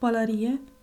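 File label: "palarie", Romanian; ktuberling; tdegames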